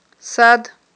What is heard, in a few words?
"сад", Aghul